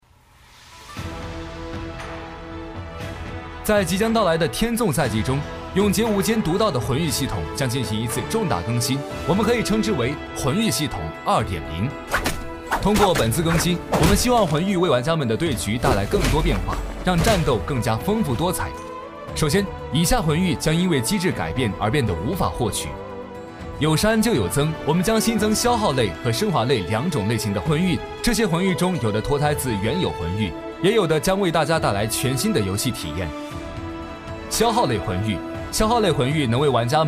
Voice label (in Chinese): 男, 解说 阐述讲解; 永劫无间魂玉2.0